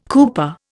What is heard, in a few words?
"cooper", Indian